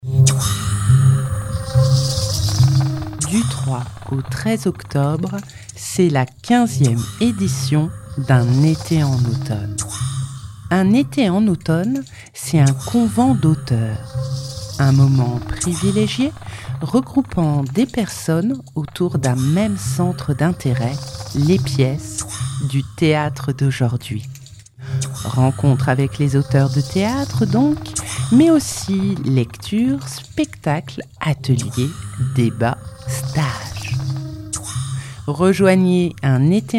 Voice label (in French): jingle